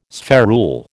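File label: "spherule", American English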